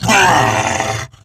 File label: Pain Grineer Wolf, en; Battle Barks